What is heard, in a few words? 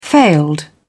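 "failed", British English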